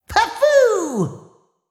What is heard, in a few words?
VO en